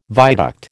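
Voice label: "viaduct", American English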